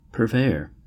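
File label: "purveyor", US English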